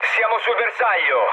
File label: near waypoint mood low, speech it; War Thunder